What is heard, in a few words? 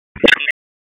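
Police Northwest District Tac, radio; Raleigh; wake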